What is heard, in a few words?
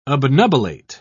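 "obnubilate", British English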